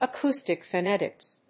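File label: "acoustic phonetics", English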